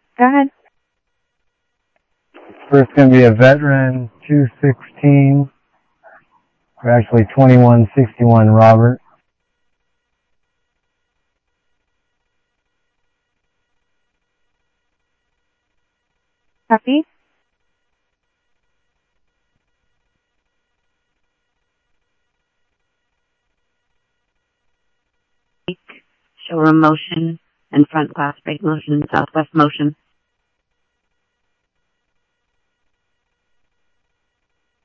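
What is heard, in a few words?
Mesa police department, scanner audio trunk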